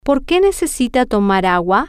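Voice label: why does he need to drink water, Spanish, female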